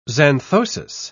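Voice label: "xanthosis", English, British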